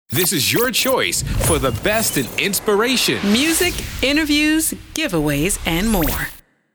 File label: Generic Sweeper